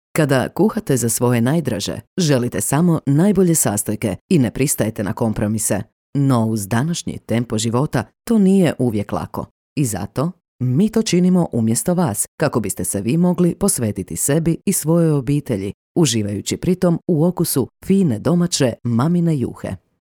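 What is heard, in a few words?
Croatian, female, corporate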